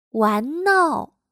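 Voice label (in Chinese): "玩闹", Chinese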